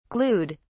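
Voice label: "glued", English, British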